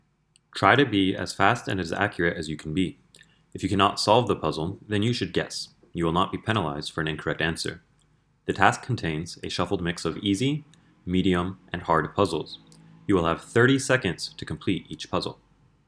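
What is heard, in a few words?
instructions, English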